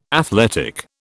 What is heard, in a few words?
"athletic", British